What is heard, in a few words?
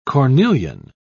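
"cornelian", British English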